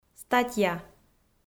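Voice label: "статья", Komi, female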